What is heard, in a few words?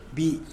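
"bid", ant, Tlacochahuaya Zapotec; animals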